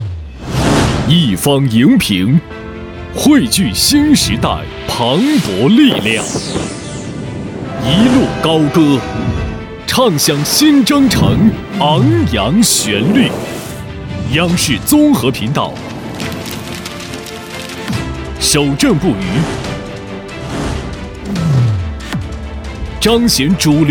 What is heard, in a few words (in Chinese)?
综合频道重点节目片单发布主宣; 央视综合频道重点节目片单发布主宣